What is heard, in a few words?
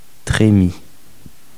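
"tremie", French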